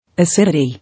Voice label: "Acidity" English

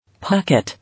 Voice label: "Pocket", English

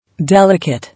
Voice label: "Delicate", English

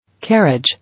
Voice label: "Carriage", English

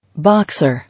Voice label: "Boxer", English

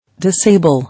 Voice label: "Disable", English

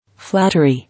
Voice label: "Flattery", English

